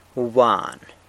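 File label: "thief", Zapotec; wbwan; San Lucas Quiaviní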